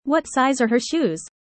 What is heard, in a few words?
user fast; how tall